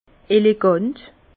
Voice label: "elegant", Bas Rhin

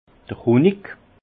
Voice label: "honi", alsace; vin; miel

